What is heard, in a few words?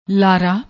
"laara", Punjabi